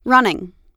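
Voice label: "running", American English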